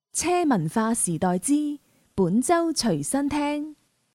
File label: female cantonese voice over sales